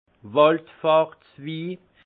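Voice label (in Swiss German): "wallfahrtswi", alsace Haut-Rhin; vin; vin de pélerinage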